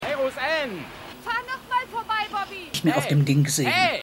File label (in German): Frau; Bobby Spellman; Ausgerechnet Alaska